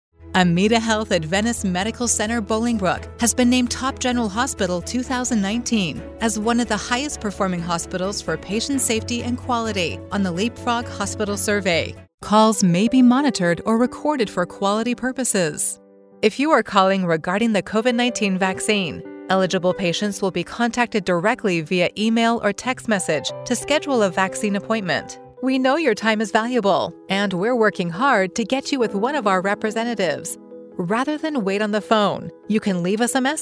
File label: IVR